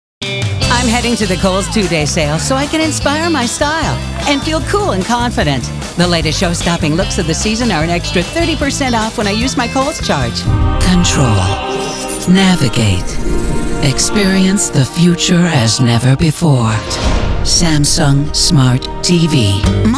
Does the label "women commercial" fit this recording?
Yes